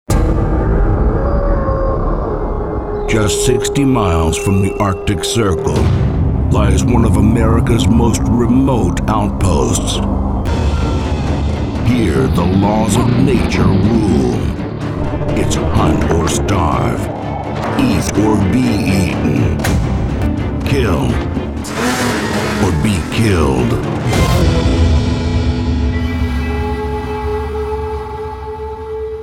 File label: NARRATION